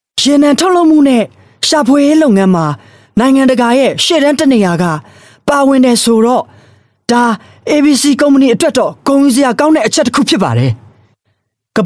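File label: Burmese male Marketing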